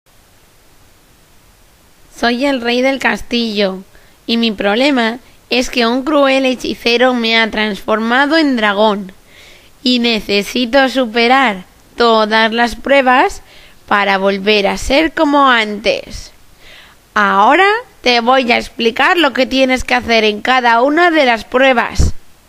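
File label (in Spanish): Narración; CASTILLOS, CABALLEROS Y PRINCESAS; infantil-09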